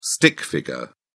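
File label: "stick figure", British English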